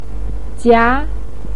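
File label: "jia", Mandarin pinyin, second tone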